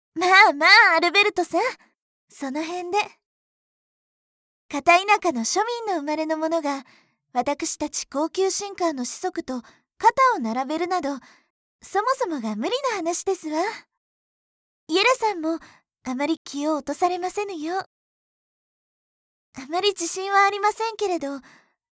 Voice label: elegant